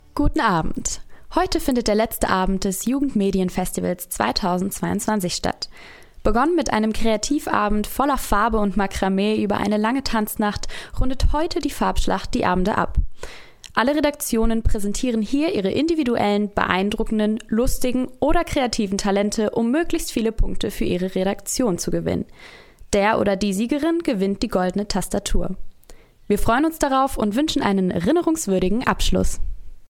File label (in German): Radio Nachrichten